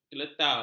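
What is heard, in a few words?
"keletar", Malay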